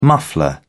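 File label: "muffler", British English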